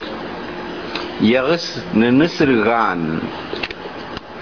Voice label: "nunusuruhan", Tobian; tongue; Ehusu nunusur han. He bit his tongue.